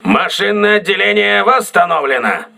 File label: voice message engine room repaired, crew ships russian